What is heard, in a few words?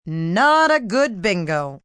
Not Good Bingo, Bingo, female: 'Not a Good Bingo', (human voice speaking); Talking; Voice Box